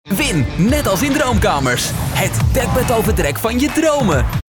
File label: Commercials